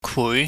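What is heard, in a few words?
"kwui", Cantonese, mid rising tone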